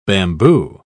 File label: "bamboo", pronounce